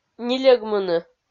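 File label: "нильӧгмыны", Komi-Zyrian, female; kpv audio dict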